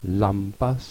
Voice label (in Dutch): "lamp", oudgrieks; λαμπας; fakkel